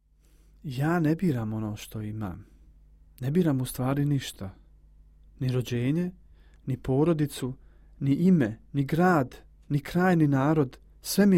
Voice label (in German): Bosnien M